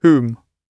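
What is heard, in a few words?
"whom", British English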